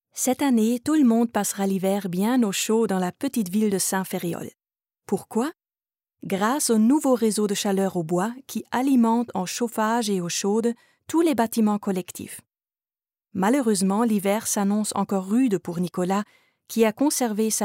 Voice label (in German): Franzoesisch Erklaervideo